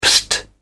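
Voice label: "psst", British English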